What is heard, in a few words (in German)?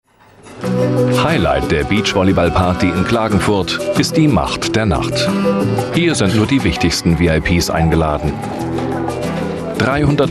doku